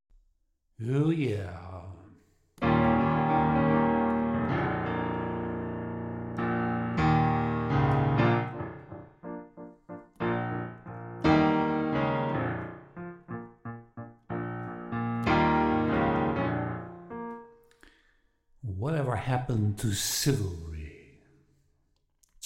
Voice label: mic test